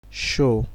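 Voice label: "show", Nigerian Pidgin, male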